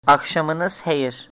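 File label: good evening, Azerbaijani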